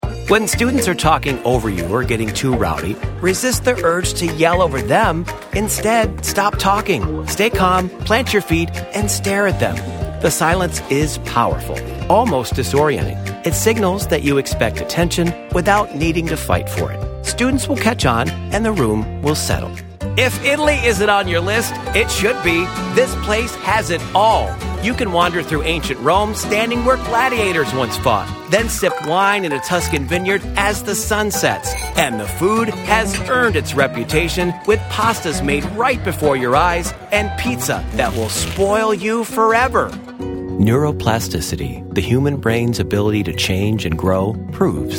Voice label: Narration